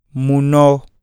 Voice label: finger, iyasa